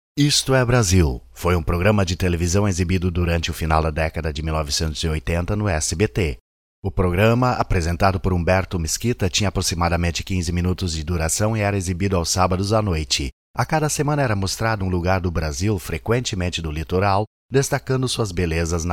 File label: locutor brasil brazilian voiceover; locutor brasileño